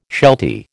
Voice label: "sheltie", American

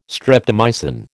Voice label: "streptomycin", American

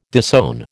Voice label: "disown", American

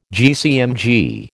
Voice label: "GCMG", American

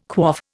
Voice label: "quaff", Indian

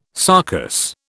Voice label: "sarcous", British

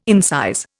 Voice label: "incise", Indian

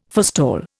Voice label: "forestall", Indian

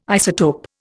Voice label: "isotope", Indian

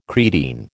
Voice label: "creatine", American

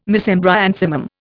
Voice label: "Mesembryanthemum", Indian

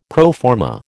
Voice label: "pro forma", American

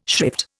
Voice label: "shrift", Indian

